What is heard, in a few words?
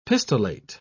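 "pistillate", British English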